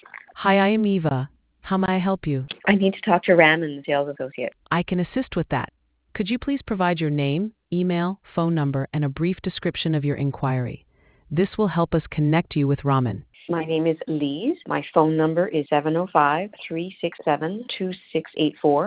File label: conversation